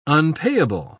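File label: "unpayable", British English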